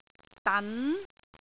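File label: "dan2", Cantonese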